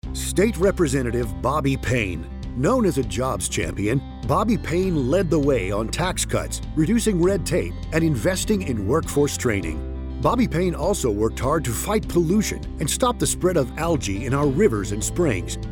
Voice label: radio

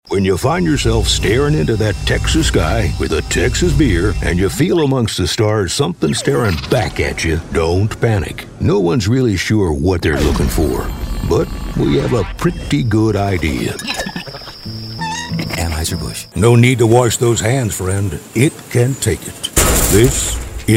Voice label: Cowboy

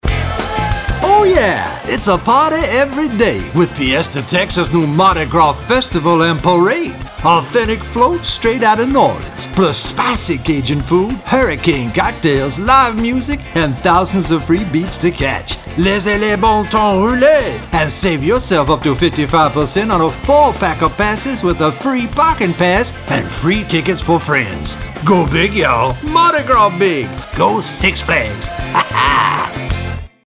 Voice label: Radio; SF

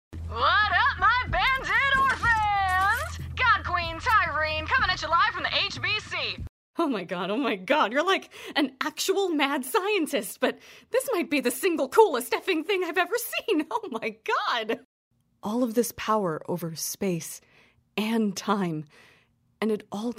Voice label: Character

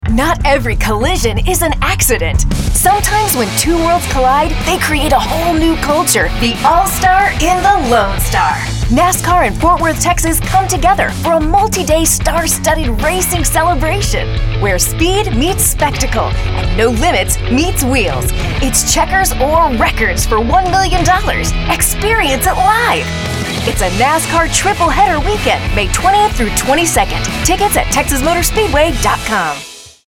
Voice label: Radio; TMS 2022 All Star

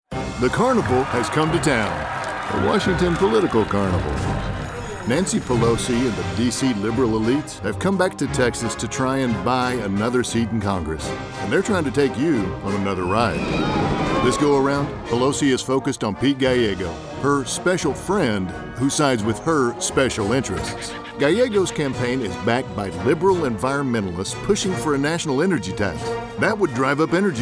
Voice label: Pol Radio; NRCC TX23 Carnival

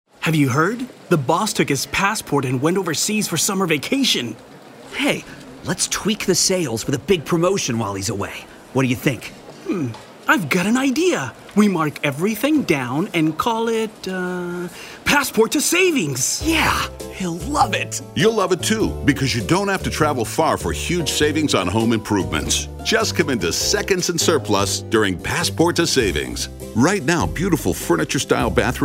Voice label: RADIO; Seconds Suprlus 2018

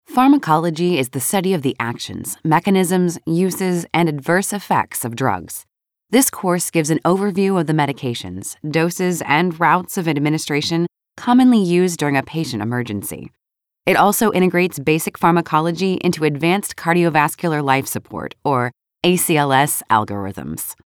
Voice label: Medical Narration

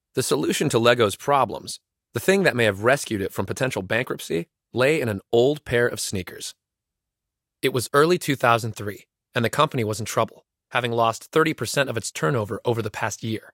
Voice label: Audiobook Sample

